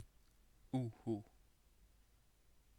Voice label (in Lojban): u'u, Lojban; [Megbánás!] Bocsánat